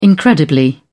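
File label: "incredibly", English, British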